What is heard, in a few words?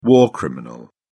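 "war criminal", English, British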